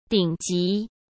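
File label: "顶级", Mandarin Chinese; chinese flashcards; hsk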